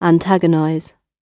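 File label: "antagonize", British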